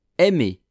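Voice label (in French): "aime", French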